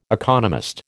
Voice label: "economist", American